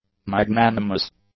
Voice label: "magnanimous", English